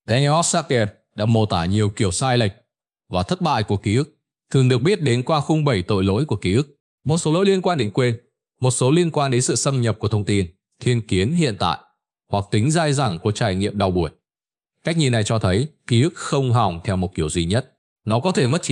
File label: narration